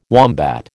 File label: "wombat", American